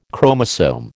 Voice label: "chromosome", American